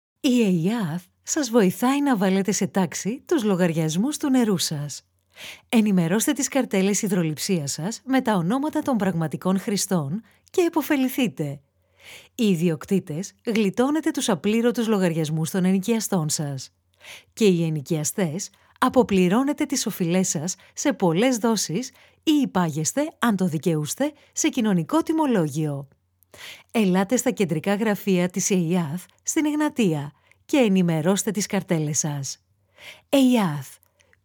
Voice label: greek female